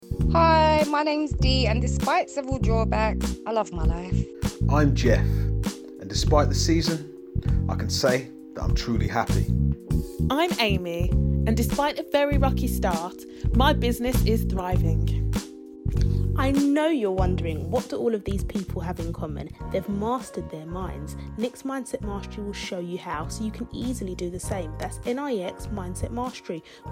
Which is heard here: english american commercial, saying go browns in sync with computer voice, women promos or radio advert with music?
radio advert with music